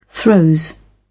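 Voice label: "throes", British English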